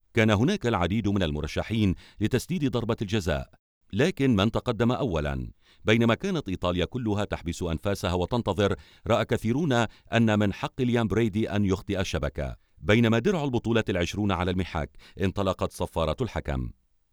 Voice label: Documentary